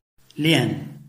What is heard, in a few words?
"lian4", Chinese